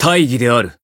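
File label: healed, Japanese; claude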